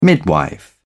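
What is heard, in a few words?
"midwife", British English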